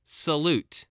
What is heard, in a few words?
"salute", English spelling